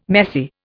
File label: "messy", Indian